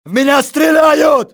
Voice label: under fire, russian soldier; XBOX